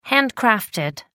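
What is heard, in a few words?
"handcrafted", British English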